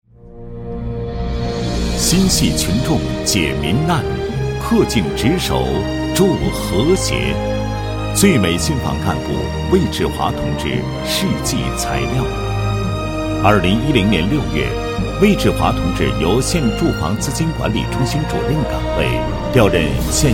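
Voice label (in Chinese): 男 先进人物 最美信访干部同志事迹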